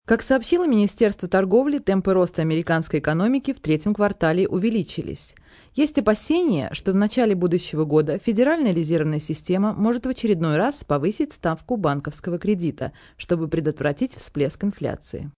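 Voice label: News Stocks, Broadcast, news, female news item in Russian on business, rising stock; rising stock market.; human voice speaking; VoiceBox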